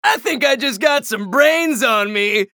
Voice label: chatter neutral; anarki